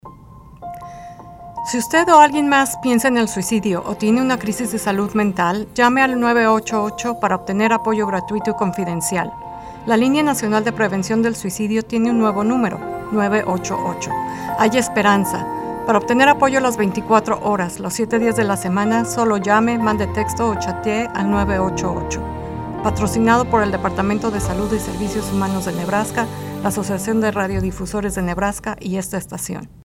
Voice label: 988 30-Second Radio PSA - SPANISH